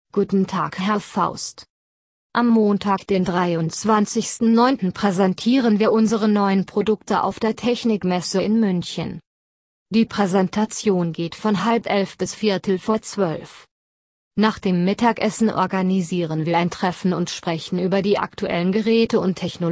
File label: German training; Test your knowledge 4; Exercise 4.1